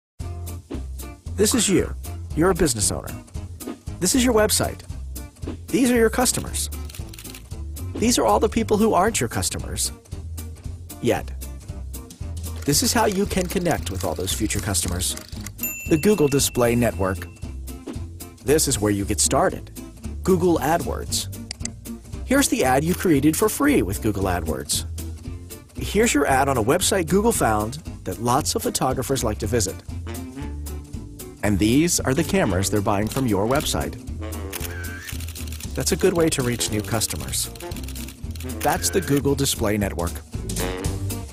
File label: surf narration